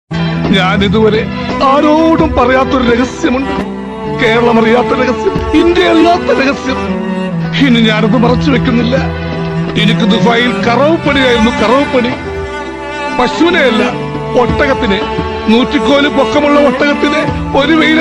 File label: Comedy and Emotional Lyrical Dialogue Malayalam Funny; salim kumar